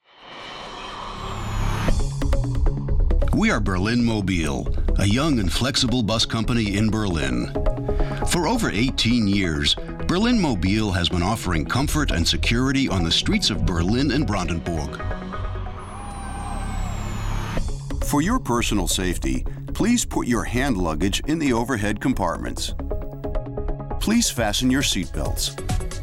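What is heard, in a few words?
us englisch, US English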